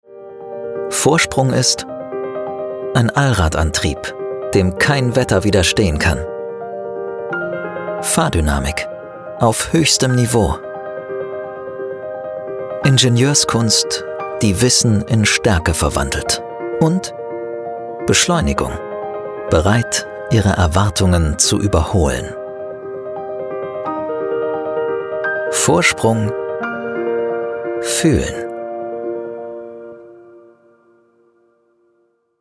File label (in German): TV-Spot; Audi